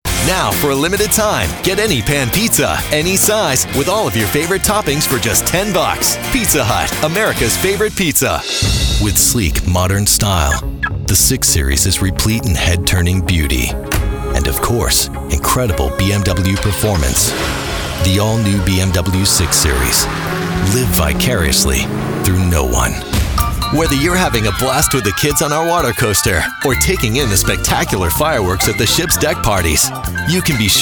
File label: commercial, men